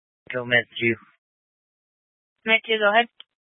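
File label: County Fire, Scanner audio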